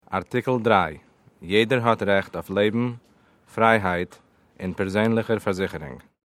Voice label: Yiddish

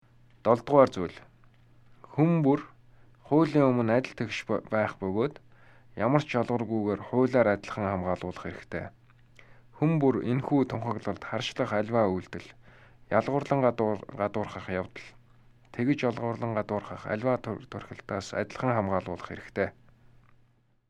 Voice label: Mongolian

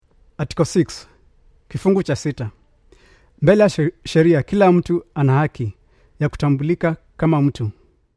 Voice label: Swahili

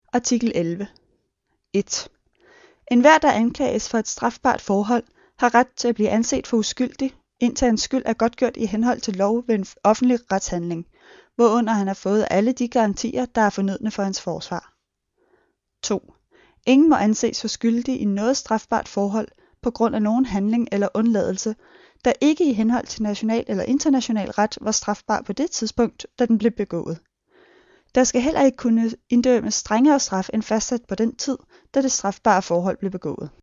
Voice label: Danish

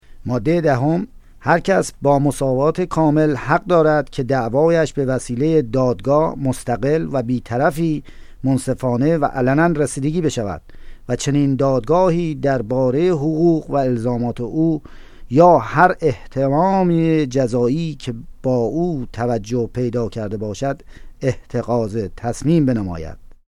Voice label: Persian

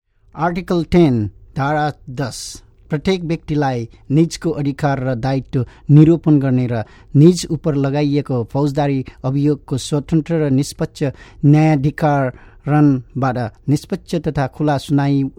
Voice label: Nepali

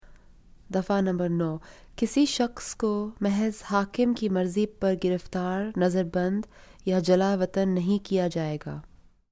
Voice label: Urdu

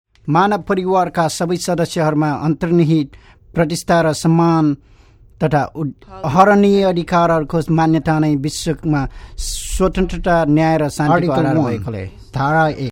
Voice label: Nepali

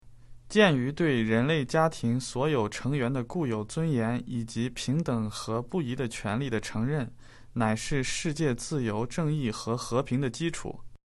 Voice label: mandarin

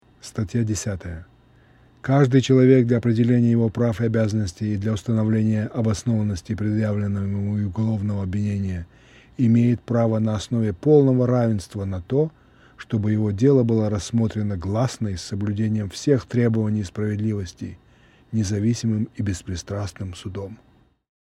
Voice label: Russian

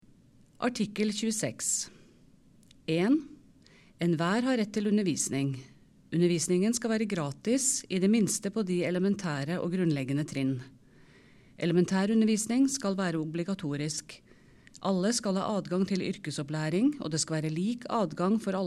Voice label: Norwegian

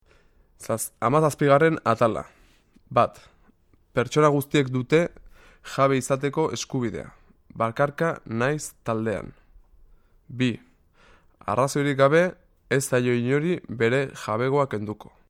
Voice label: Basque

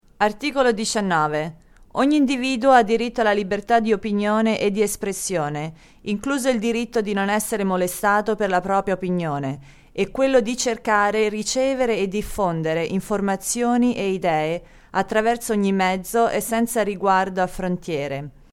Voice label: Italian

